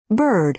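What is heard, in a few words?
"bird", American English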